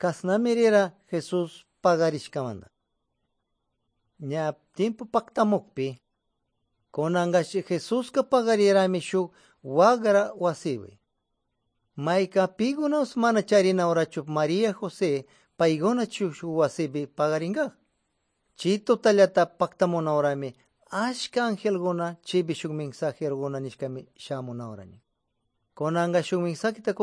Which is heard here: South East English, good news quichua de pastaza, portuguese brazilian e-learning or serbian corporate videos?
good news quichua de pastaza